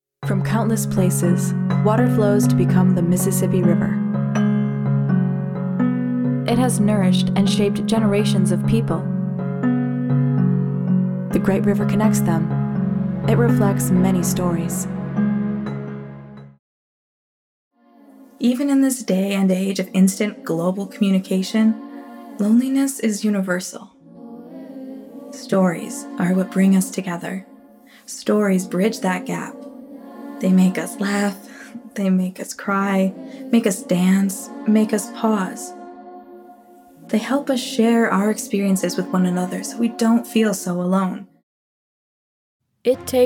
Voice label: VO Narration

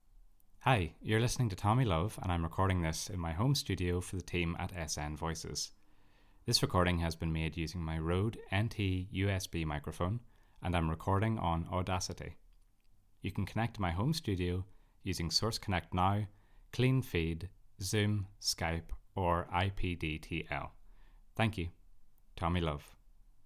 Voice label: home studio

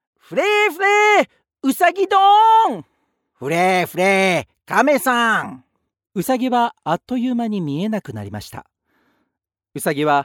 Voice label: audiobook narration Japanese; Rabbit and The Turtle

